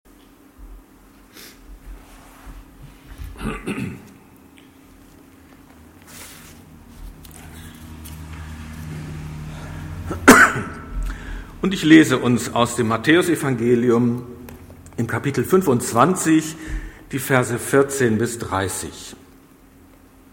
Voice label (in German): Predigt